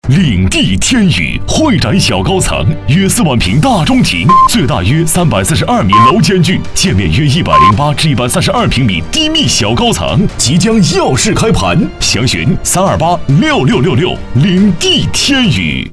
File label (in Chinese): 广告浑厚大气; 领地天屿